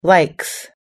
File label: "likes", us english